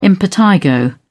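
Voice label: "impetigo", English, British English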